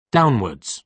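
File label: "downwards", British English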